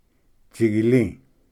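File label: "tsigili", Cherokee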